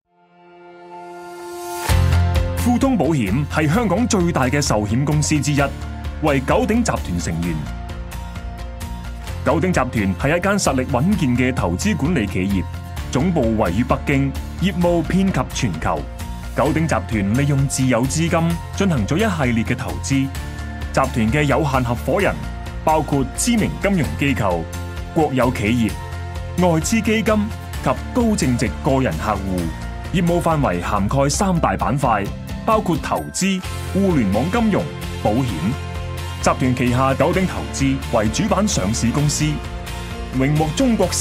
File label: male Chinese smooth, commercial, recited; 30-50